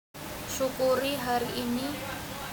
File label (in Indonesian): suara manusia syukur; Pengusir Hama Burung Otomatis; human